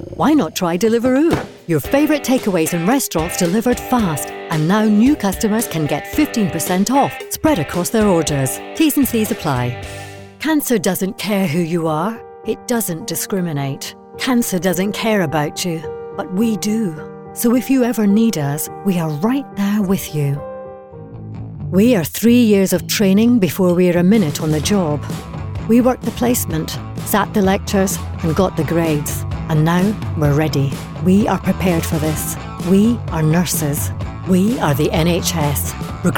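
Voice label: Scottish English, female, commercial, accent of scotland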